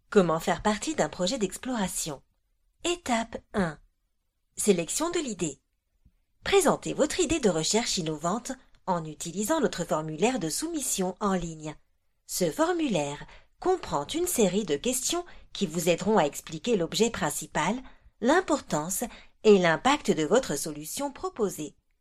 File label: FRENCH